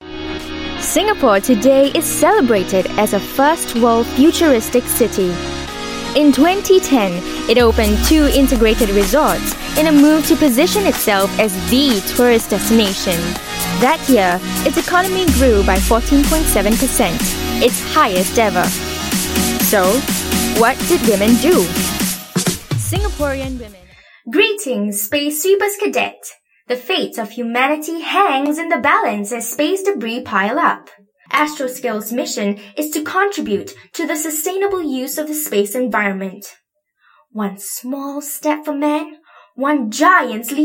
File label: Asian English Voice